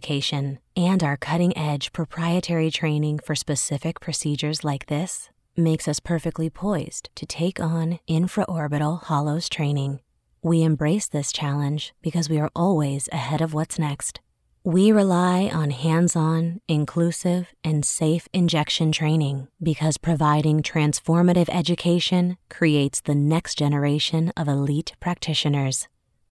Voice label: Medical Narration Aesthetics Youthful Soft Warm Female English